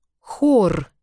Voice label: h aspirata in russo

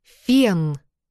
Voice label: Фф in russo

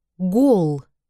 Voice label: G in russo

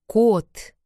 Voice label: c in russo

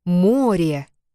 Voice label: M in russo

